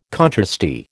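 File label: "contrasty", American English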